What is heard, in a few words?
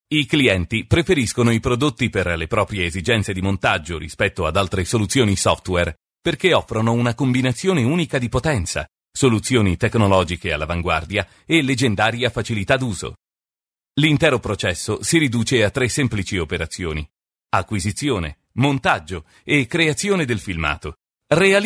italian male corporate